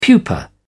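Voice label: "pupa", British English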